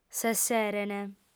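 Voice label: "s'esserent", Sardinian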